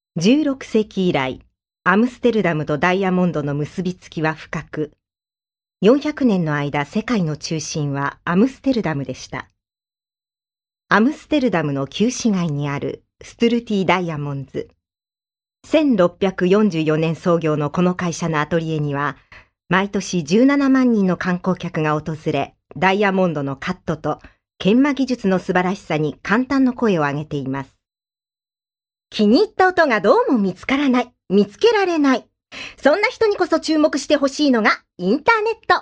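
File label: Japanese, female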